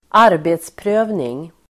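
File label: "arbetsprövning", Swedish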